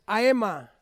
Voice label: "aema", Ansus; next week